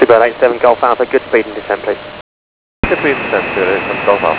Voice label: ATC Chatter UK; fgfs